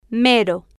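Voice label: "mero", Spanish, female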